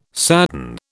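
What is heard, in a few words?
"sadden", British English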